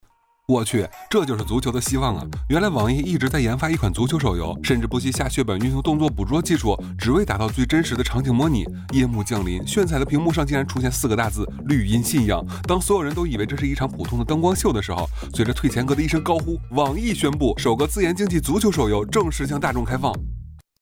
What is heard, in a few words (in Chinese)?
解说